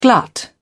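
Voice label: "glut", English, British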